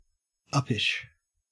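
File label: "uppish", Australian English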